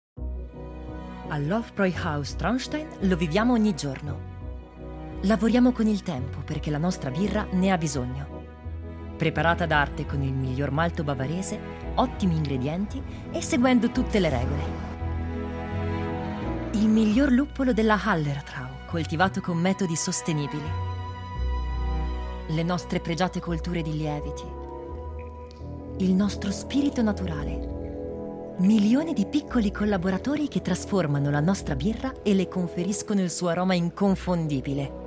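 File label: Italian, Documentary; GoLocalise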